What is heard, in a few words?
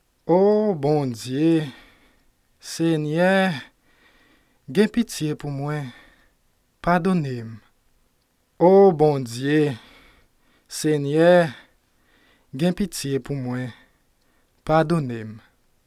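Haitian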